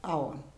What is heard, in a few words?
"avan", karuk